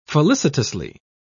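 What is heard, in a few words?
"felicitously", British English, dictionary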